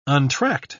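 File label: "untracked", British English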